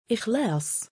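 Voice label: "2ikhlaa9" male, Arabic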